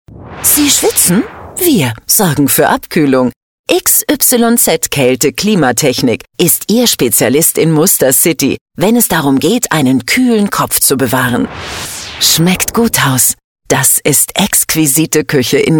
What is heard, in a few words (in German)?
schmunzelnd genussvoll